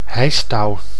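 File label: "hijstouw", Dutch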